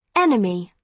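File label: "enemy", British English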